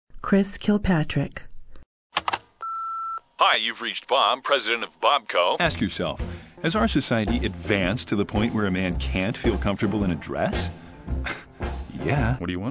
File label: male voice; television and radio commercials, industrials, cd-roms, cartoon